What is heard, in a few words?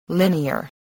"linear", spelling